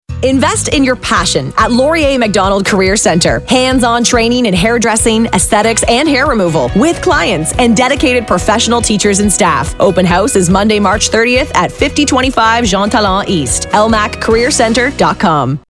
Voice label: female english canadian neutral north american accent career; lmac career centre